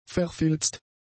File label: "verfilzt", German; adjectives